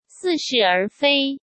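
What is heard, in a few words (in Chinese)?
"似是而非", Mandarin Chinese; hsk; chinese-flashcards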